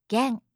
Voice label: "gen", japanische maedchennamen

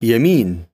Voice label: "yamin", arabische jungennamen

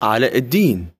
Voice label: "aladdin", arabische jungennamen

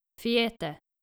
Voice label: "fiete", nordische jungennamen

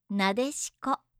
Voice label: "nadeshiko", japanische

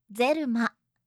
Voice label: "zelma", japanische

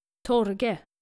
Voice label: "thorge", skandinavische jungennamen

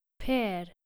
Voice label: "peer", nordische jungennamen

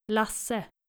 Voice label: "lasse", skandinavische jungennamen

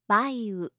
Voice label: "baiu", japanische maedchennamen

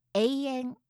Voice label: "eien", japanische maedchennamen

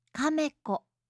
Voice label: "kameko", japanische maedchennamen

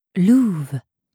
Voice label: "louve", franzoesische maedchennamen

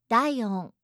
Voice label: "daion", japanische maedchennamen

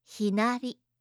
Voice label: "hinari", japanische

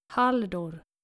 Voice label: "haldor", skandinavische jungennamen

